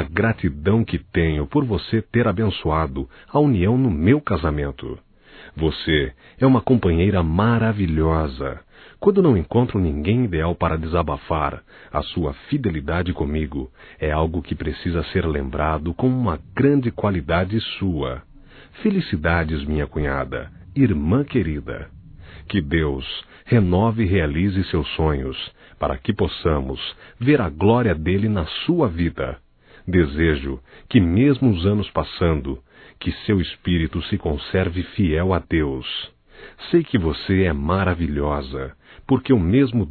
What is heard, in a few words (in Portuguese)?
Aniversário Feminino, Telemensagens; Telemensagens 2017; Aniversarios